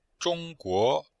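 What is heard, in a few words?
"zhong1guo2", Chinese; Cours de Chinois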